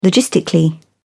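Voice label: "logistically", British English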